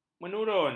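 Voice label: "menurun", Malay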